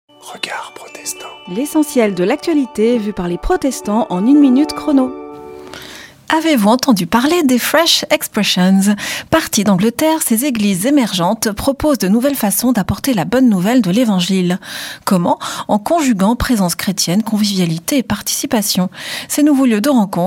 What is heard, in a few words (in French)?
Spot radio; Regards protestants